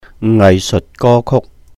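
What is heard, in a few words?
"ngaih seuht gō kùk", Cantonese; artistic songs; 藝術歌曲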